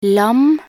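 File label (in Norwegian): "lam", Norwegian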